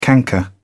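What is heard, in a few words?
"canker", British English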